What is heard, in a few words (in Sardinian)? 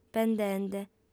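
"pendende", female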